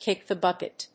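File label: "kick the bucket", English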